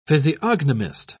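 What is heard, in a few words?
"physiognomist", British English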